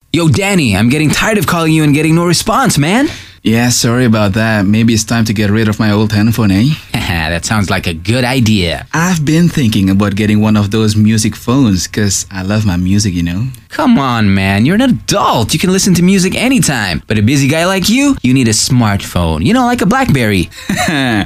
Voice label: English Indonesian, Bahasa Indonesia Male

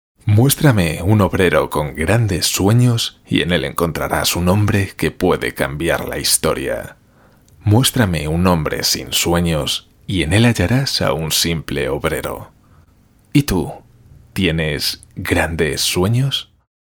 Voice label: European Spanish Male Natural Read

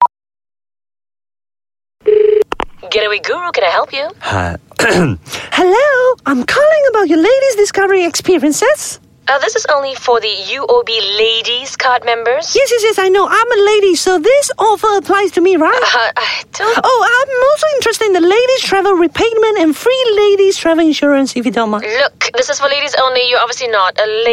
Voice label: Asian English, Singaporean Female; UOB Ladys Card

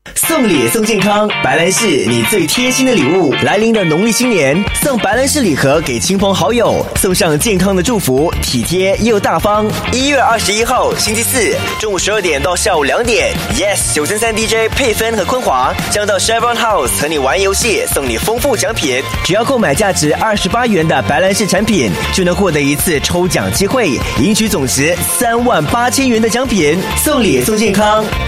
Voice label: Mandarin Male; China; Brands

